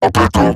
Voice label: Surprised Eximus Corpus Robot Large; Dialog; Barks; Battle; Corpus Robotic Large